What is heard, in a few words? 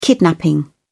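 "kidnapping", British English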